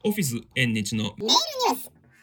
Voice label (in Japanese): ピッチ変更